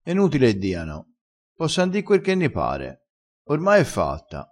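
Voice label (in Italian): "diano", dialettale crespinese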